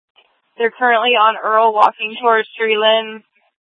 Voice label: Police South Dispatch; Regional Wireless Cooperative (RWC) - Simulcast H- Scottsdale